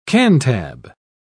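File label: "cantab", British English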